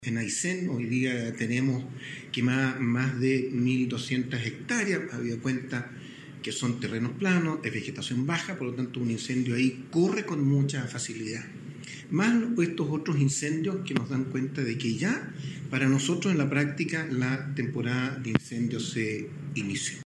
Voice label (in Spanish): cuña